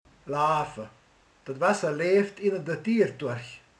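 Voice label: "folyik", Hungarian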